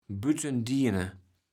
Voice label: "Bütten-Diene", kaevels platt

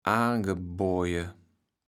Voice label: "aangeboje", kaevels platt

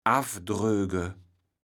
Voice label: "affdröge", kaevels platt

